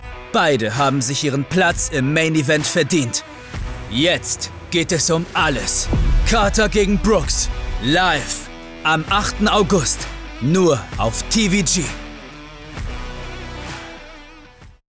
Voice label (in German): Boxkampf, werbung tv spot; TVG